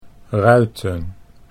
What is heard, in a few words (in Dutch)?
"ruiten", Dutch